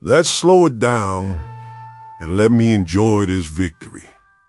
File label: happy; fertig classic games